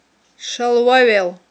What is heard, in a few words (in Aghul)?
"шалвавел", Aghul